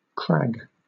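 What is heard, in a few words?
"crag", British English; pronunciations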